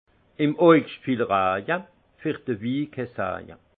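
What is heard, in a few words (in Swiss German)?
"augscht", alsace Haut-Rhin; vin; beaucoup de pluie en août peu de chance pour le vin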